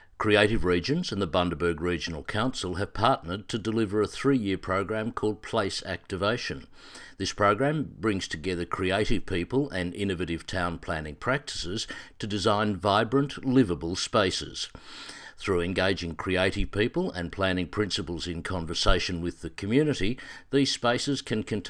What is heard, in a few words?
Voiceover; Flicks on the Bricks